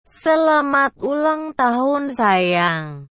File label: Happy birthday dear. indonesian